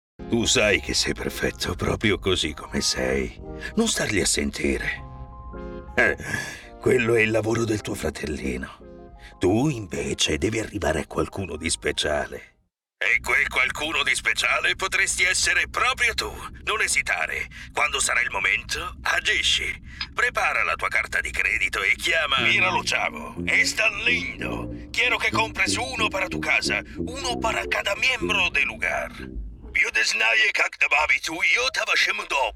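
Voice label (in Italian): Italian, Animation; GoLocalise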